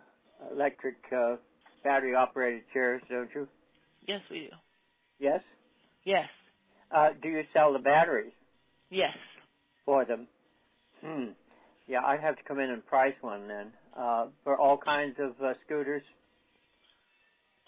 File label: US Medical